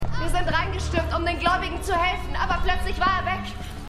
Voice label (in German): Frau; The 100